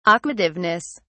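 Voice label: "aquativeness", British English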